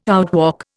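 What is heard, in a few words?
"outwalk", Indian English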